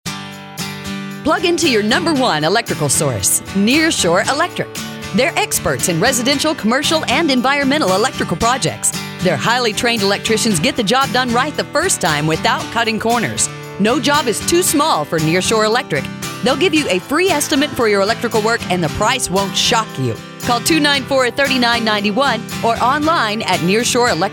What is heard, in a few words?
COMMERCIAL